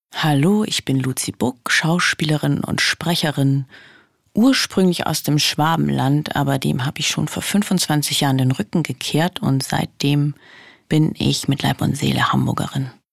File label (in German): Vorstellung, Persönliche Vorstellung, German; Schwäbisch